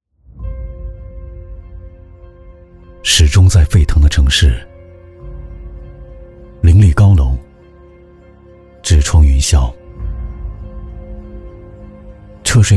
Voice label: 地产宣传品质走心; 鹭鸣九章

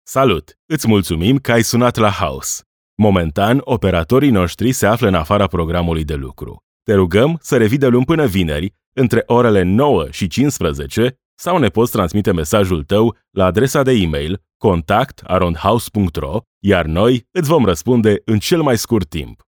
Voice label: 罗马尼亚语男样音

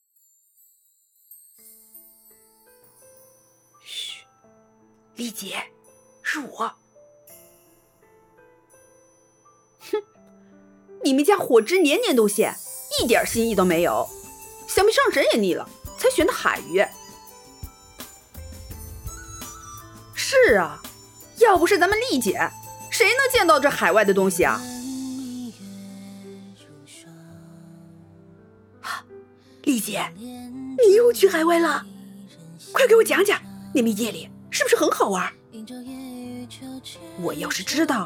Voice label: 正太音; 眷思量; 白鹤

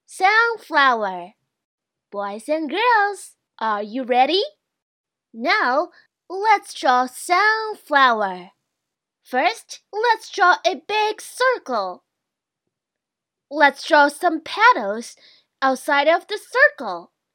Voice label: 儿童模仿

